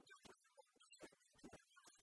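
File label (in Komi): бать-мам быдтісны кык нылӧс, Komi, female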